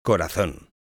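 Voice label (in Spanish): "corazon", español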